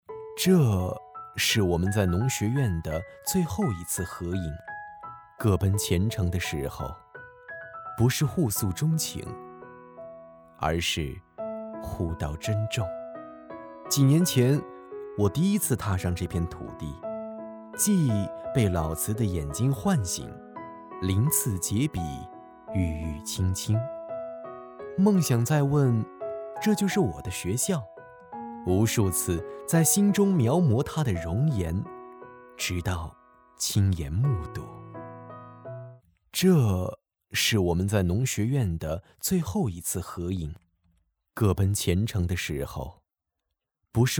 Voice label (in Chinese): 男 农学院招生宣传; 襄阳农学院